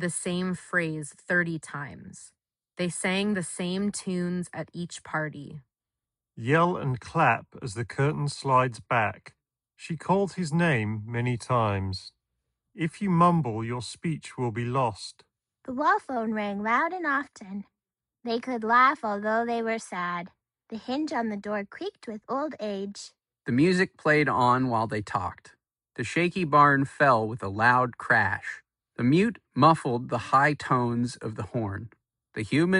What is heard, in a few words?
Voice Isolation Mode ideal; Apple AirPods Pro 3